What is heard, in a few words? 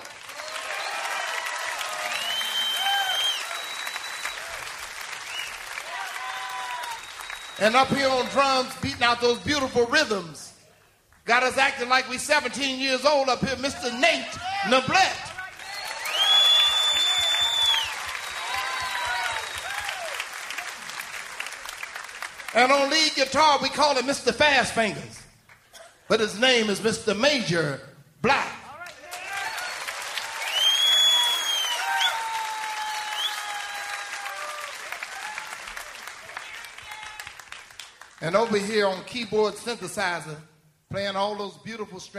Band and Singers Intros